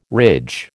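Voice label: "ridge", American English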